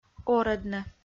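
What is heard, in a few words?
"орӧдны", Komi-Zyrian, female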